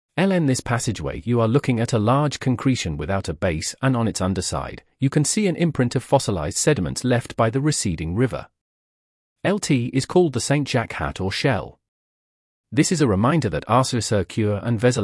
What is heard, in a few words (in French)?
coquilles saint jacques anglais